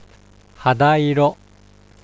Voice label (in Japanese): "hada iro" Japanese; flesh; はだいろ; 肌色; Let's Speak Foreign Language